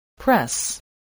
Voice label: "press", voice, spelling

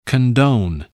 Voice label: "condone", english

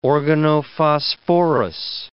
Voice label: "organophosphorous", English

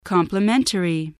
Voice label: "complimentary", english

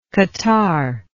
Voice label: "catarrhed", English

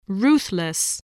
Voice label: "ruthless", English spelling

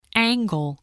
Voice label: "angle", spelling

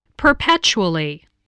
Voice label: "perpetually", voice, English

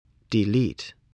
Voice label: "delete", English

